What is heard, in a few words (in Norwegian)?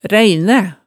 "ræinæ", Hemsedal